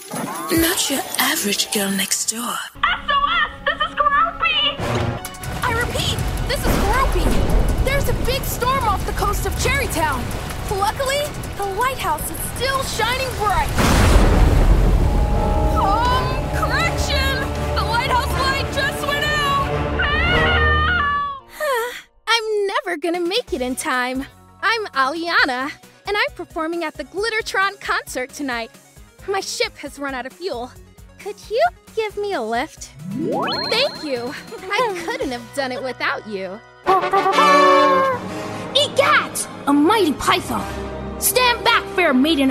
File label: Animation, voiceover